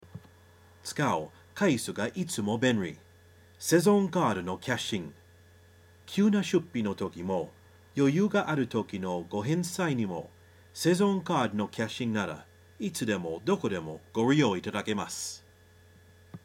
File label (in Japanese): Nihongo